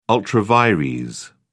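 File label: "ultra vires", British English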